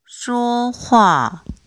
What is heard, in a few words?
chinese pronunciation f h